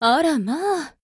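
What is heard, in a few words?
mood, Japanese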